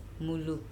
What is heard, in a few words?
"mulu", Andamanese; egg